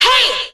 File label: "hey" group of women, voice; ooh ahh